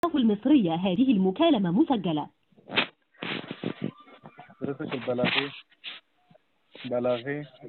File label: Call recording Emergency number